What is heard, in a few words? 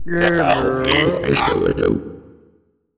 portrait synthetic; Stellaris; machineage